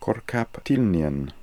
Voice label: "Korkaptilnian", pronounciations; Fourth Way; Beelzebub

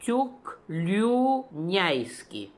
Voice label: "Tookloonian", pronounciations; Fourth Way; Beelzebub pronounciations

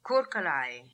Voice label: "Koorkalai", pronounciations; Fourth Way; Beelzebub

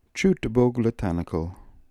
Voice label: "chootboglitanical", pronounciations; Fourth Way; Beelzebub

